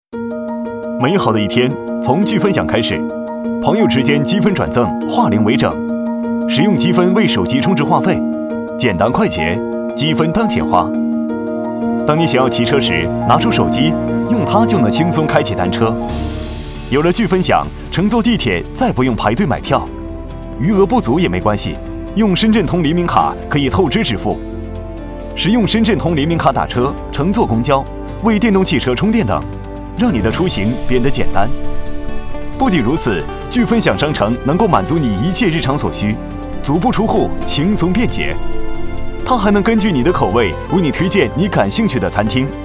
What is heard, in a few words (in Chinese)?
男 大气科技; 支付宝; 聚分享